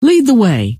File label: "lead the way", scientist female